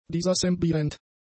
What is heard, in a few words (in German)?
"disassemblierend", German; adjectives